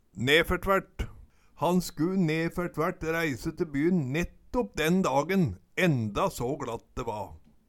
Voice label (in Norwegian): nefertvaert, Numedalsmål